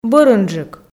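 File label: "бӧрынджык", Komi, female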